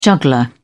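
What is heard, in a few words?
"juggler", British English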